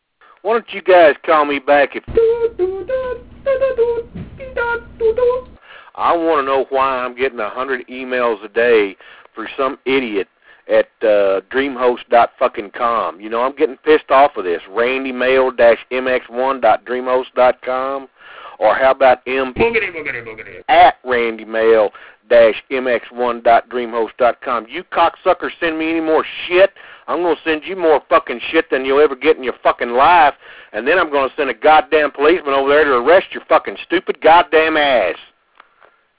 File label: angry